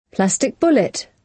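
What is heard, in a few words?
"plastic bullet", British English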